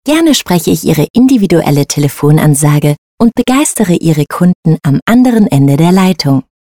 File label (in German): sprachprobe sprecher